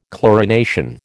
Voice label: "chlorination", American

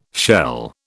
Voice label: "shell", British

